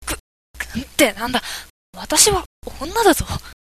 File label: girl